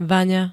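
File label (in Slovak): "vaňa", Slovak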